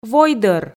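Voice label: "войдӧр", Komi, female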